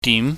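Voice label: "dim", Cantonese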